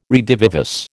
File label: "redivivus", American